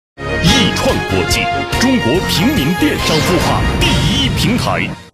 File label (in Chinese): 广告 品牌形象 大气, 男; 易创国际央视品牌